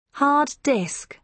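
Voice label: "hard disk", British English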